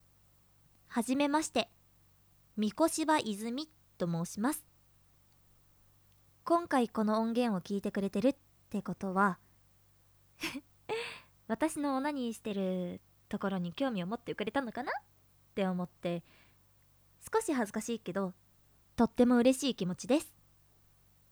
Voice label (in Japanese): オナニー実演 カウント